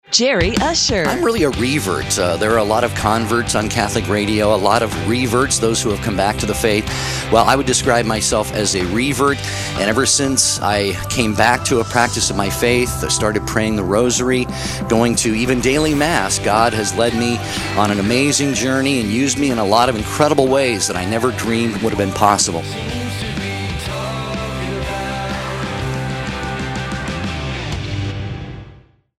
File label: Network Promo; Take 2